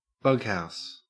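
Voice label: "bughouse", Australian English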